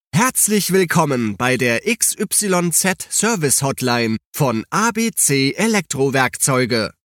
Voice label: IVR recording in German language